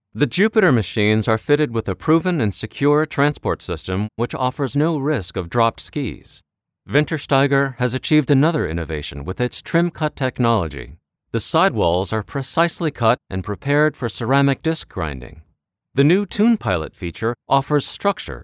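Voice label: narration ski tuning; Wintersteiger-Jupiter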